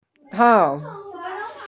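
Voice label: "chang4", Mandarin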